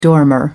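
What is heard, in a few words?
"dormer window", British English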